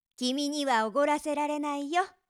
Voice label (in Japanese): 君には奢らせられないよ, 恋人に甘える口調